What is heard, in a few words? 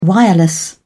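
"wireless", British English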